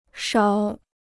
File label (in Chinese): "勺", Chinese